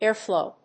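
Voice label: "airflow", English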